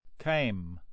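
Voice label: "came", English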